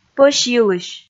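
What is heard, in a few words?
"пӧсьйылысь", Komi, female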